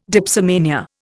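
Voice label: "dipsomania", Indian English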